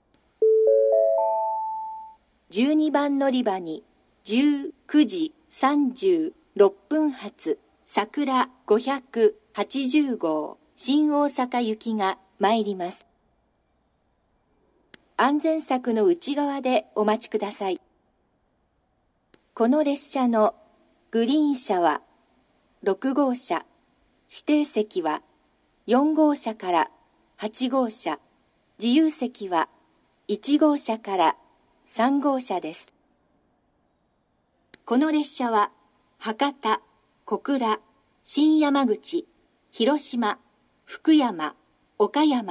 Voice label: 新鳥栖駅 さくら580号 新大阪行き, 九州新幹線 SIRIUS型放送; 九州新幹線SIRIUS（シリウス）放送

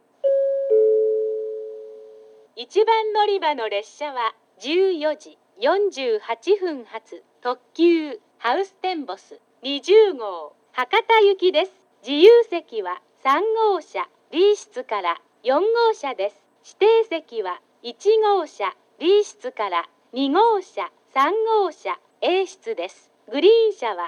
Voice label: 1番のりば停車中放送; ハウステンボス; 1番のりば停車中放送（ハウステンボス20号 博多行き）

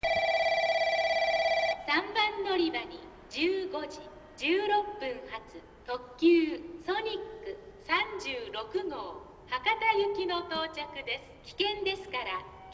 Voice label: 接近放送; 行橋; ソニック36号 博多行き; 標準の放送内容でした。この頃は「危険ですから」フレーズもありました。

